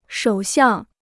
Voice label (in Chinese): "首相", Chinese